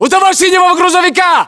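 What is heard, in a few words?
landmark near truck blue, battlechatter, Russian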